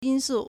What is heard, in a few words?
"yin1 su4", Chinese